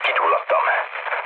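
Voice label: Hungarian on fire mood low, speech; War Thunder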